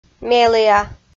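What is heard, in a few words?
"меліа", Komi, female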